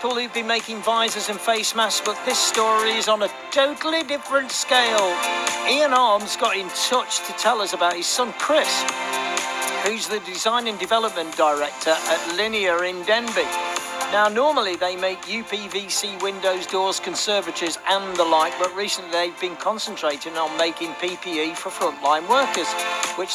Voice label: Radio Interview